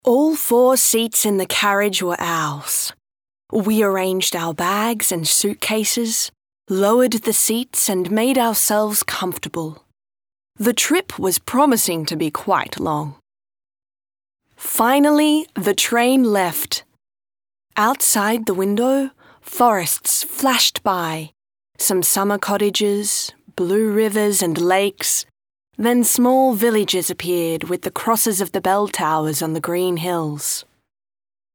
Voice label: ENG female Casual